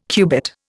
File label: "cubit", Indian